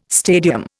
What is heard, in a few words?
"stadium", Indian